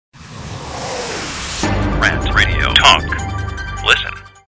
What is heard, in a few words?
Promo Talk; Rant Radio Talk; RantMedia; Station Promos-Listener Submitted